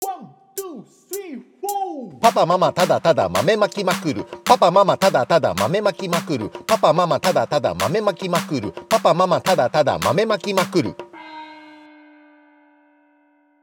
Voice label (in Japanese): 早口言葉リズム（中級編）